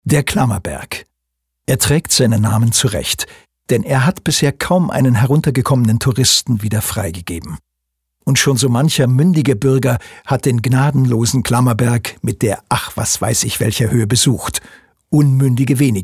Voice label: Kommentar Neutral

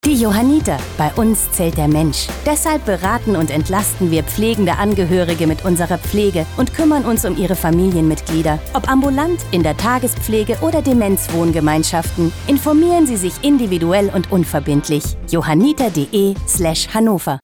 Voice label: Fremdtake Freundlich, voices

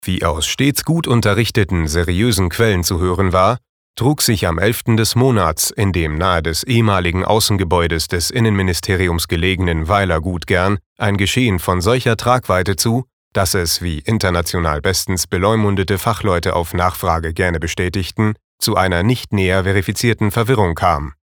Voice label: Trocken Neutral

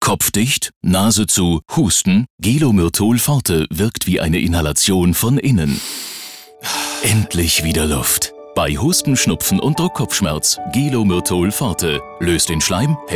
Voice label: Neutral

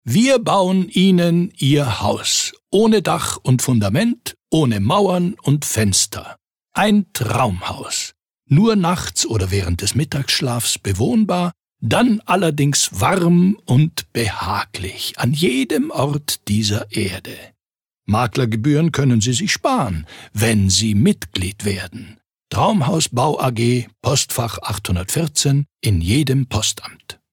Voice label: Werbung Freundlich

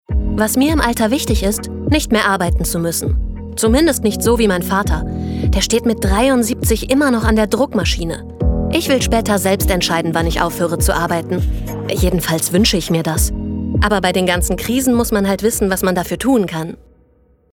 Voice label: Neutral

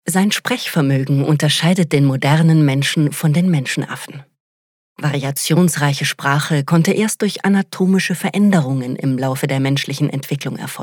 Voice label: K Sprache, Freundlich

